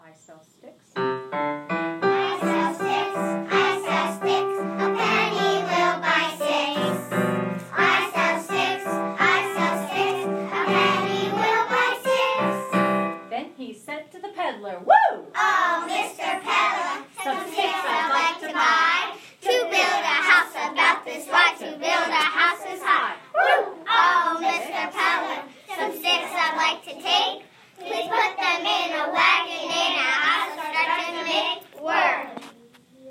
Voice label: Sticks and rap